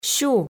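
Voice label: "сю" Komi, female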